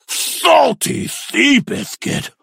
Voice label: hurt